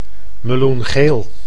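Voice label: "meloengeel", Dutch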